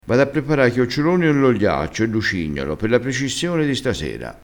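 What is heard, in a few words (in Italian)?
"lucignolo", crespinese dialettale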